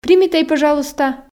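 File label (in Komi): примитӧй пӧжалуйста, Komi, female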